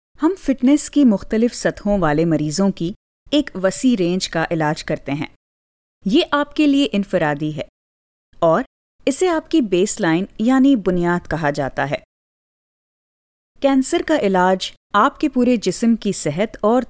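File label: Urdu, Pakistan, female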